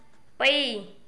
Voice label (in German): khmer zwei